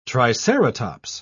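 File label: "triceratops", British English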